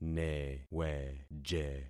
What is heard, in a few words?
"neweje", concat syllables; Affixing experiment